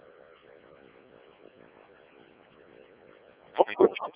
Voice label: decoded speech, parrot